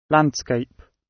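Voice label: "landscape", British English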